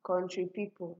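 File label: "country people", Nigerian Pidgin, female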